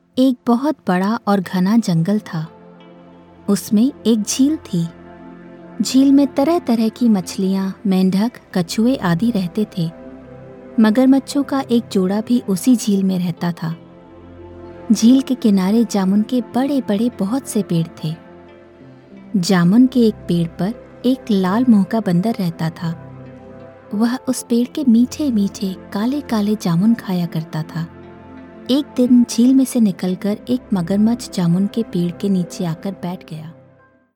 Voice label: Hindi

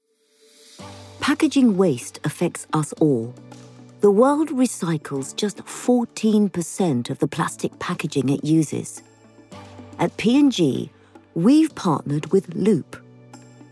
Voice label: Corporate; 40s-60s. Female. British Asian/RP.

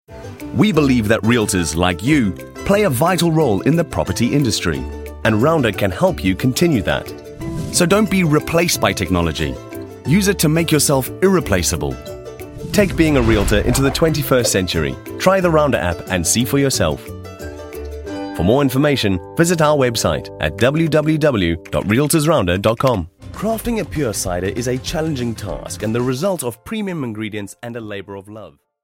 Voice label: Corporate

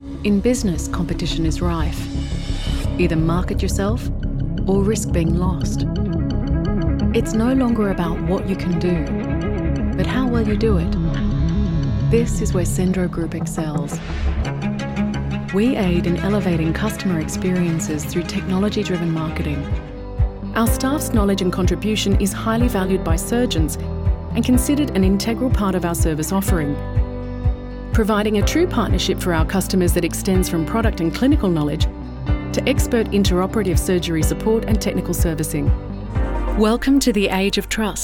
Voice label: Corporate

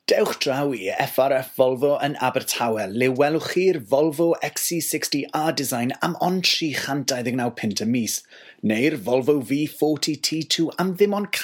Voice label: Welsh

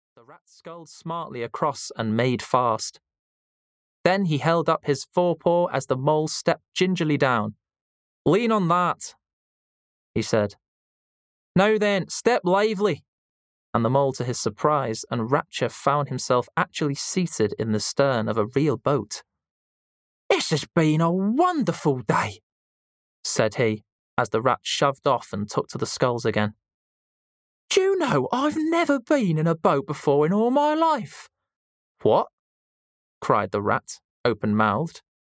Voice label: Audiobook; regional accents

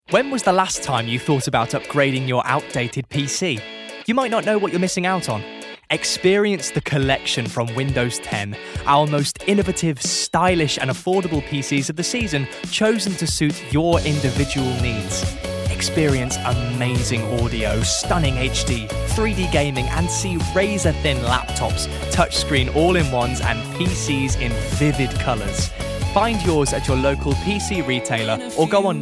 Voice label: commercial reel

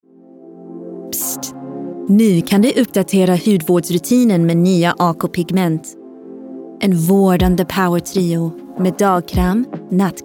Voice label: Swedish Commercial; Scandinavian; Male; 30s-40s; Based in Sweden

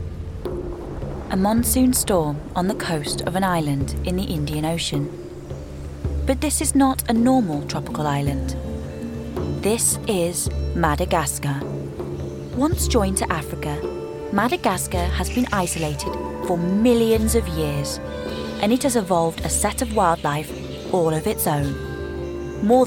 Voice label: Documentary

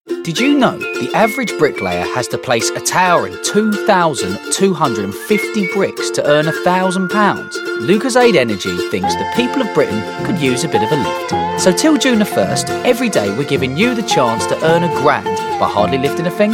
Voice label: Commercials

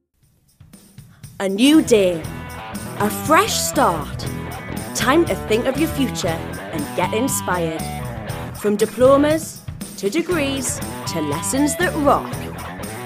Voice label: Commercials

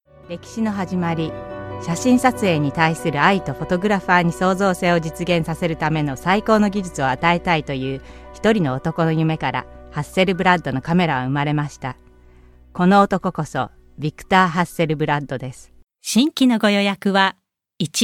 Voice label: Japanese

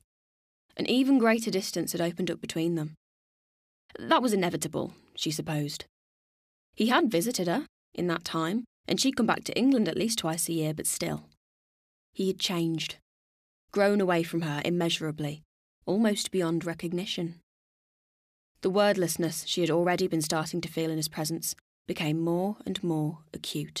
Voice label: Audiobook, Female. Birmingham. West Midlands.

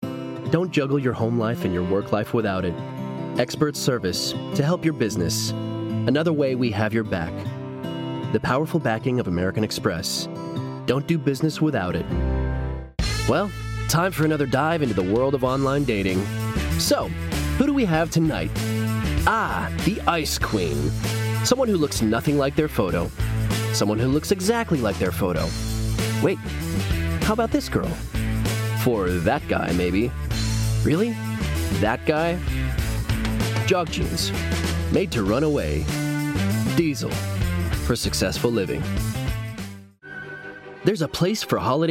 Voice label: Commercials

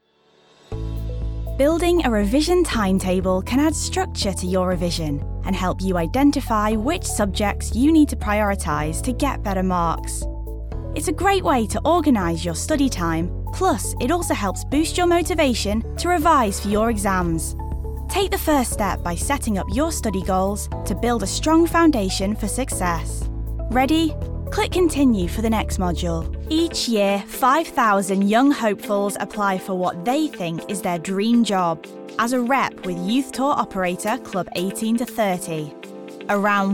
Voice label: Narrative

